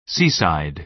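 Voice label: "seaside", American English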